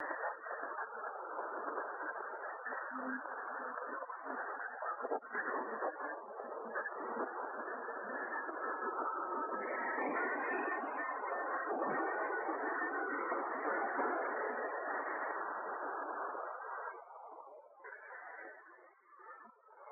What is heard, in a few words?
9,45MHz female voice reduced